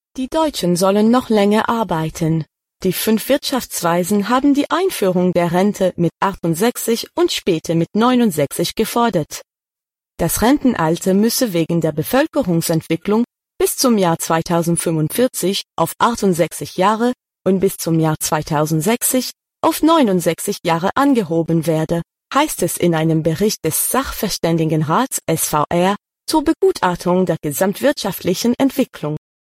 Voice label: Allemand Narration

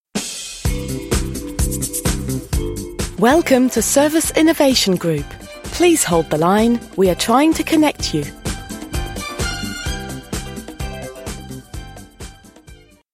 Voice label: Telephonie Attente

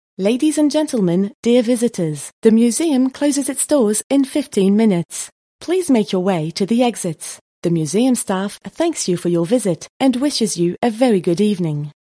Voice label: Annonce fermeture musée

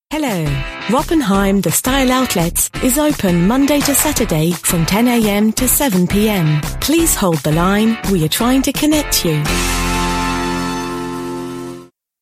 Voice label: Telephonie Attente

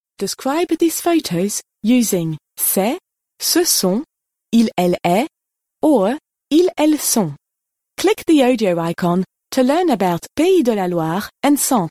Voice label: Bilingue Français Anglais pedagogique

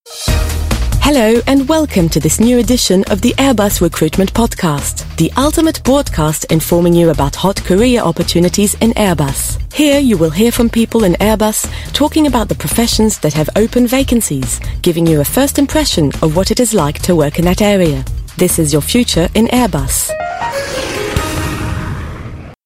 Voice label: Podcast; Airbus

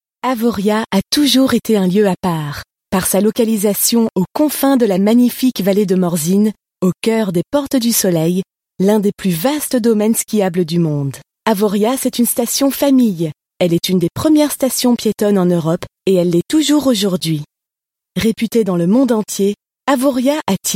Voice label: Film Institutionnel; Pierre Vacances

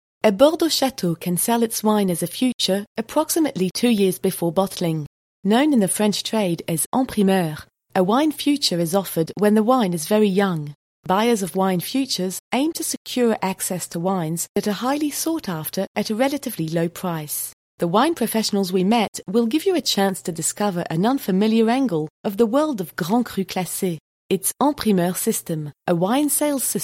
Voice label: Documentaire

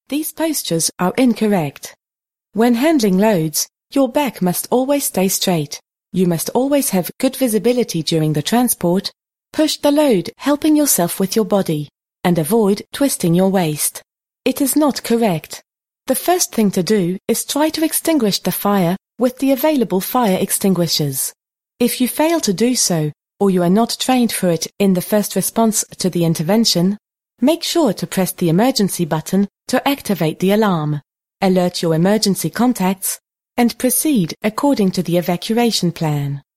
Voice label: E Learning Prevention au travail

